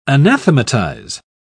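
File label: "anathematise", English, British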